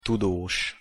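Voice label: "tudos", Hungarian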